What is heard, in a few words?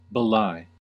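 "belie", US English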